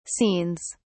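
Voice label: "scenes", British English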